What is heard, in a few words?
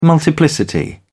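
"multiplicity", English, British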